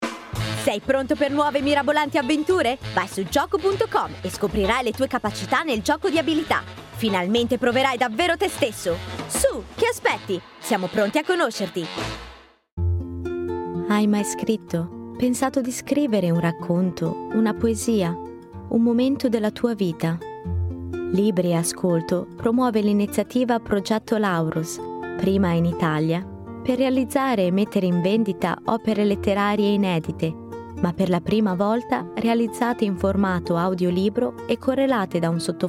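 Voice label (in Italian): Italian, Commercial; GoLocalise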